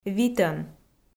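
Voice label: "витӧн", Komi, female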